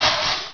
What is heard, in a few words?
"hiss", fvox; valve